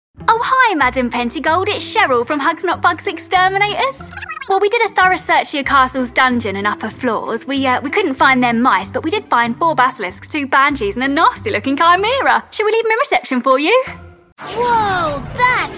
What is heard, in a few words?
english british animation